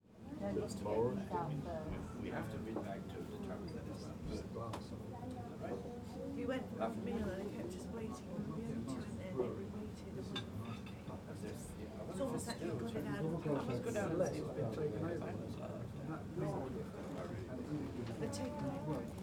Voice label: Small Crowd Talking With English Voices; SFX Producer